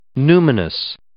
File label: "numinous", English